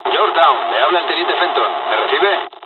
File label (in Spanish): dialogs spanish; War Thunder